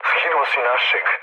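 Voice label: Serbian player killed ally mood low; War Thunder; speech srb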